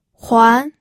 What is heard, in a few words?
"huan2", pinyin audio